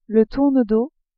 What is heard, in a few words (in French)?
"tournedos", french